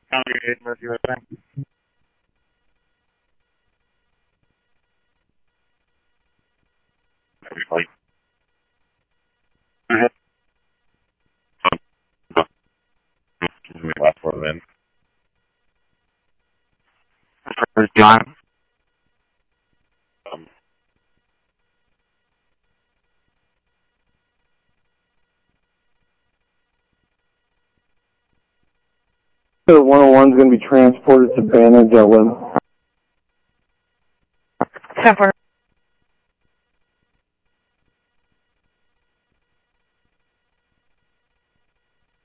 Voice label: Police, scanner audio trunk